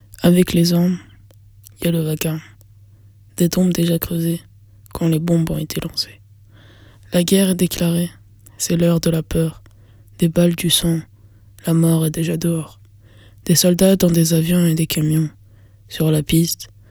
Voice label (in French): slameur engage